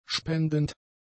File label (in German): "spendend", german; adjectives